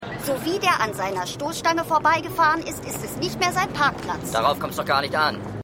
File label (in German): Frau Mann, Stimmproben; Seinfeld